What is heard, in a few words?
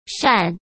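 "扇", Mandarin Chinese; hsk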